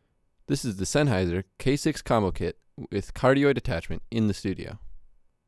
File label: K6 with cardioid attachment